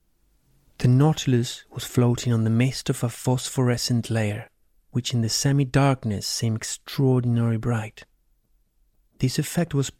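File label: Latin American Spanish, Accented English; GoLocalise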